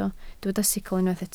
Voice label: "sicl", Welsh

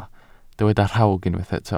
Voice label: "rhawg", Welsh

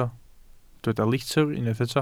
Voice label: "litr", Welsh

